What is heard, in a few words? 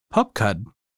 Pumpkin "pəbkəd", Lushootseed; fall